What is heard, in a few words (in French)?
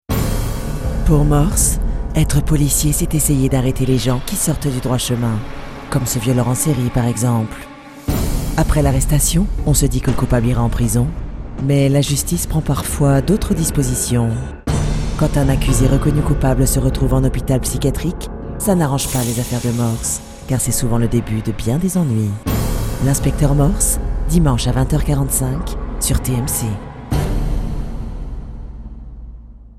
grave suspense; Morse